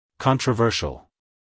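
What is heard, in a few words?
"controversial", American English male; everyone-can-use-english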